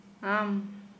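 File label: you, Santali, female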